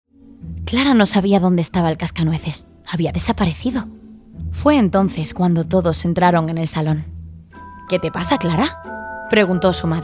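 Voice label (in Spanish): Narradora, español castellano; Genérica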